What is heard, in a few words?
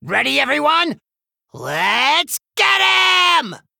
Male Gnome; Hero Scabbs; Mission8 Intro